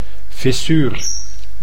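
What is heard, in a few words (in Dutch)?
"fissuur", Dutch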